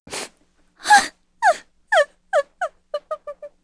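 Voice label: Sad, Korean; heroes; Shamilla; kings raid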